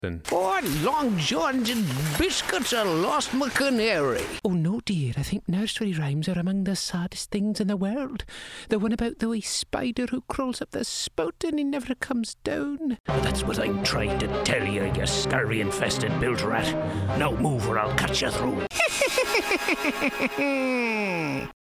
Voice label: Animation